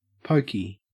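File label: "pokey", Australian English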